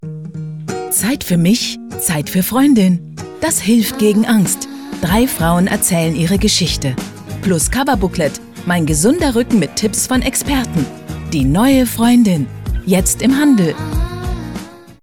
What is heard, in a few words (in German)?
Spots OFF, frauen; Freundin